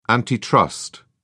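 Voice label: "antitrust", British English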